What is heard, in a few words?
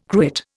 "cruet", Indian